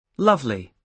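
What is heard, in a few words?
"lovely", British English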